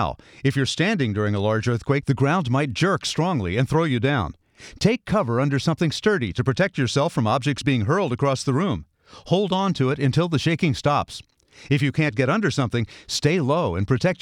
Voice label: Drill Broadcast English No Sound Effects; 60sec; california; ShakeOut